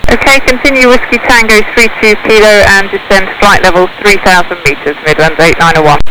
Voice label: woman midle